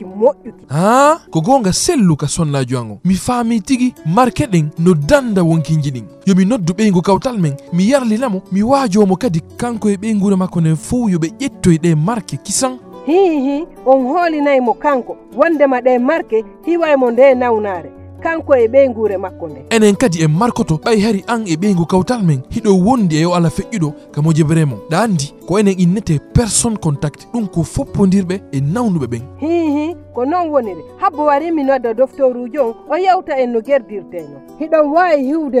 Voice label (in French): spot Ebola vaccination guinee poular